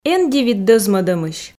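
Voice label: эн дивит дӧзмӧдӧмысь, Komi, female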